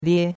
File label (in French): "lie1", chinois; Cours-de-Chinois